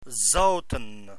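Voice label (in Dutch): "zouten" Dutch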